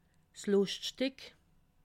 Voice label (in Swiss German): "luschtstick", alsace